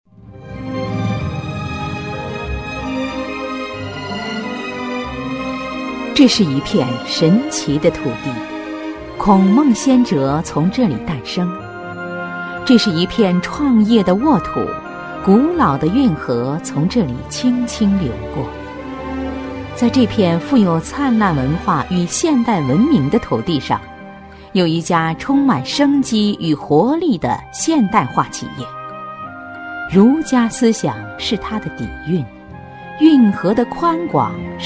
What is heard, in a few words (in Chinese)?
女 宣传片配音 大气舒缓, 沉稳大气